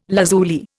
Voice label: "lazuli", Indian

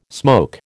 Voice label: "smoke", American

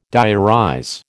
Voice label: "diarize", American

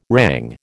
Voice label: "rang", American

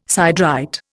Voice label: "siderite", Indian

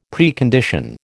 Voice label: "Precondition", American

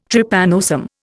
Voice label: "Trypanosome", Indian

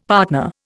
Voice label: "partner", Indian; Dictionary

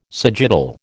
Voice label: "sagittal", American

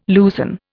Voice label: "loosen", Indian

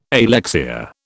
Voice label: "alexia", British

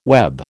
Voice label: "web", American

